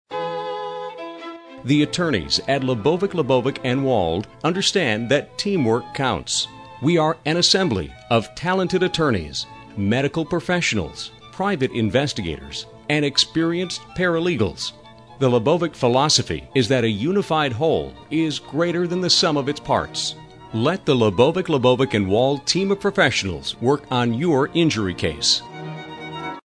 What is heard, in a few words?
On Hold; bank law; Labovick Labovick and Wald